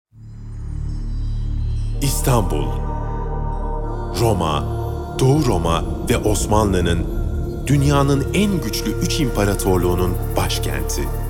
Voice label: belgesel, seslendirme

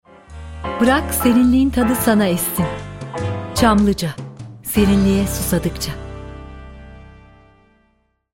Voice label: sicak sakin

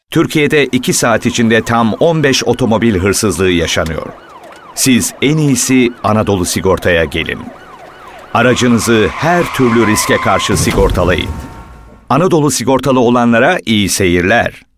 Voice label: guclu otoriter; seslendirme

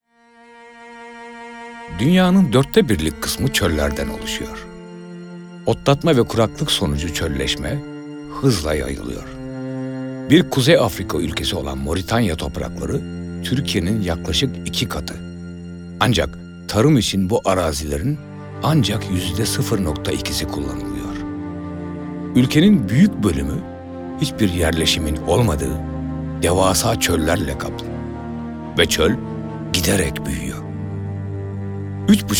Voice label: belgesel; tanitim

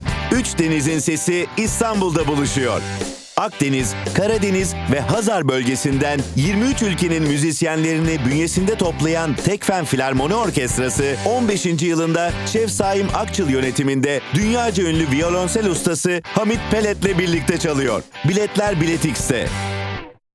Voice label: enerjik tempolu, duygusal motive

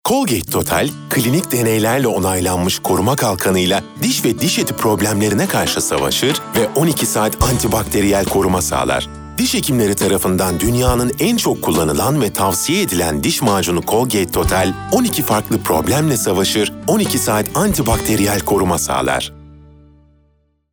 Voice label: neseli esprili, seslendirme

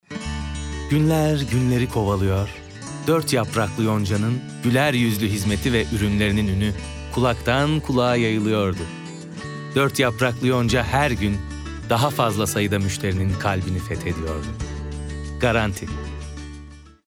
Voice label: sicak samimi

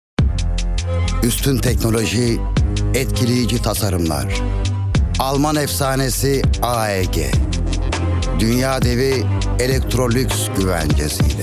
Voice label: kurumsal imaj, seslendirme

